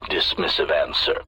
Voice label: dismissive answer, sfx

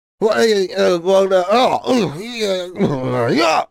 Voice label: gibberish

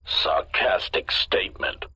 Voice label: sarcastic statement

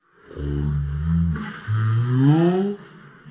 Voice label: are you here super slow, sfx

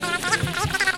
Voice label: complain

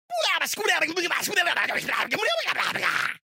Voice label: gibberish

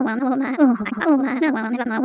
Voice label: mumble

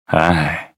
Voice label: creepy hi

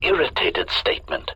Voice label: irritated statement

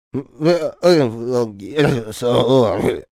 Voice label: gibberish

